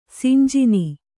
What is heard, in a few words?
"ಸಿಂಜಿನಿ", Kannada